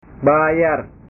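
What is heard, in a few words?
"bayar", Indonesian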